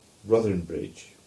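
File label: English; Ruthernbridge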